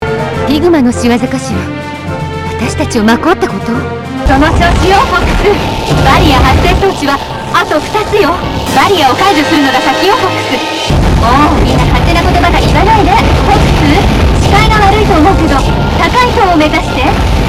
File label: Japanese; Assault; Fichina